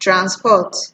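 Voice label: "transport", Nigerian Pidgin, female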